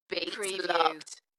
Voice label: UK female Dalekness; Beats locked; beanstalk audio